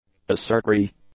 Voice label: "assertory", English; adjectives

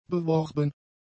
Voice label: "beworben", German; adjectives

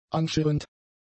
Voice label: "anschirrend", German; adjectives

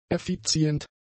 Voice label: "effizient", german; adjectives

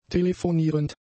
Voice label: "telefonierend", German; adjectives

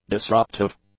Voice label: "disruptive", English; adjectives

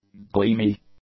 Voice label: "gleamy", english; adjectives

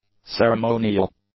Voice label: "ceremonial", English; adjectives

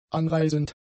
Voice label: "anreisend", German; adjectives

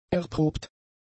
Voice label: "erprobt", German; adjectives

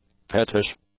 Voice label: "pettish", english; adjectives

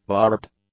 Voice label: "barbed", English; adjectives

